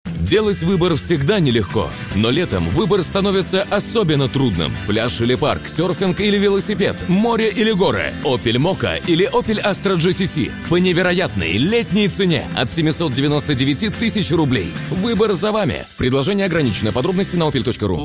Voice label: radio opel